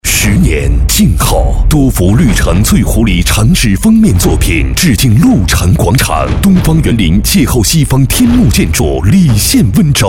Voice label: 男 地产; 多弗绿城翠湖里

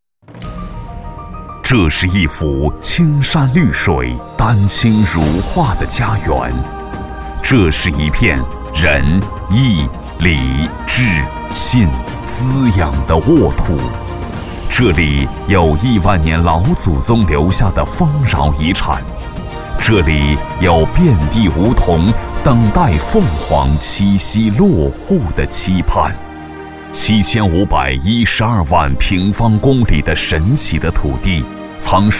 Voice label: 男 地区宣传; 地区宣传-黑龙江五常

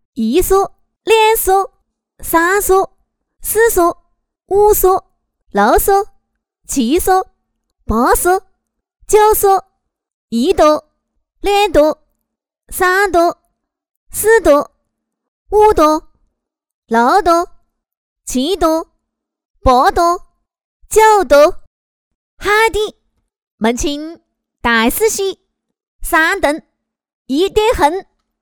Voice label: 女, 游戏, 麻将; 长沙麻将

